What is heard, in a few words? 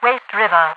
waste river, radio voice; Half Life 2